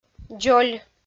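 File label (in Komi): "дзоль", Komi, female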